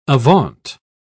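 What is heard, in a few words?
"avaunt", English, British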